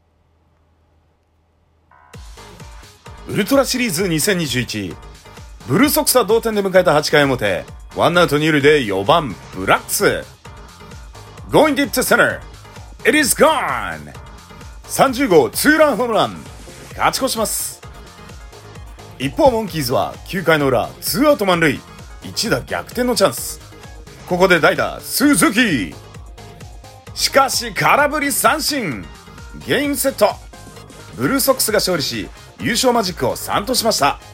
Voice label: baseball news